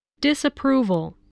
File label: "disapproval", english spelling